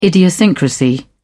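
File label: "idiosyncrasy", English, British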